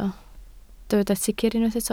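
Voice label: "sicr" Welsh, spoken word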